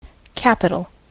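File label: "capital", US English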